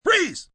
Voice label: Freeze, Police, male: 'Freeze', command, order, (human voice speaking); Talking; VoiceBox